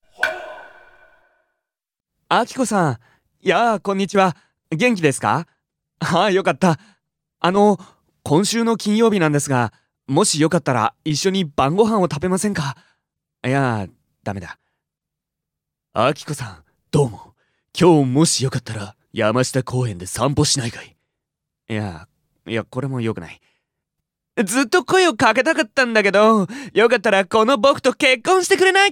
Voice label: dialog; JapanesePod101; Beginner Season 1